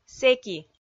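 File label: "сэки", Komi, female; kpv audio dict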